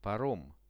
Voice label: "parom", Russian; паром; transport; RUSSIAN VISUAL DICTIONARY